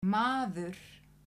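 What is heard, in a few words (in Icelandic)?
"maður", Icelandic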